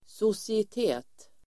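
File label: "societet", Swedish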